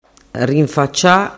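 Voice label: "rinfacia", Sicilian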